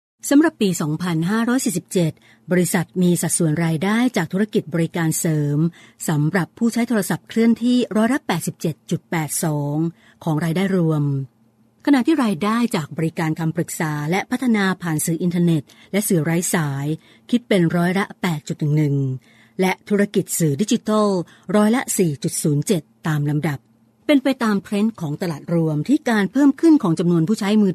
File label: female Thai F Narration; voice-over